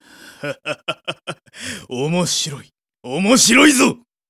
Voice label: voice monster